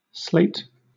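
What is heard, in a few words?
"slate" English, British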